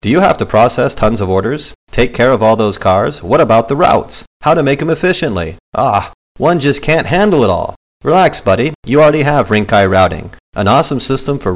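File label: Male English IVR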